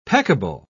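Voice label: "peccable", British English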